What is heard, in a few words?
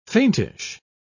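"faintish", British English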